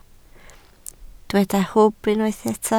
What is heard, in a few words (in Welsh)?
"hwb", Welsh